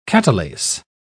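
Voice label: "catalase", British English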